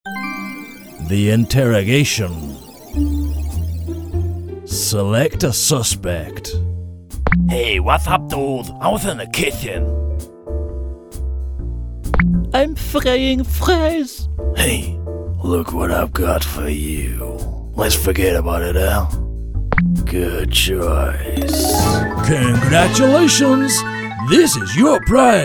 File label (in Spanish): maquinas tragamonedas tragaperras voces off locutores ingles americano